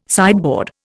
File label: "sideboard", Indian